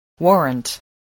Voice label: "warrant", spelling